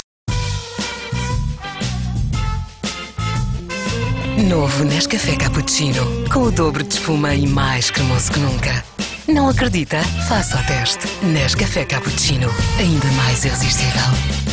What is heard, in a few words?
PT; Nescafe Cappuccino